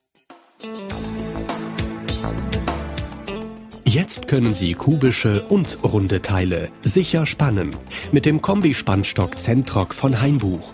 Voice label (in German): Werbespot; Hainbuch